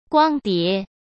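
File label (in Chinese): "光碟", Mandarin Chinese; hsk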